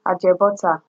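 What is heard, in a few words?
"ajebutter", Nigerian Pidgin, female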